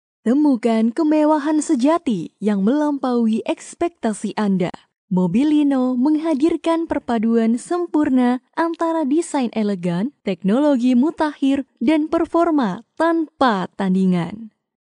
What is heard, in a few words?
Indonesia female